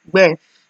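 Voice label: "gbef", Nigerian Pidgin, female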